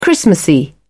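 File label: "christmassy", British English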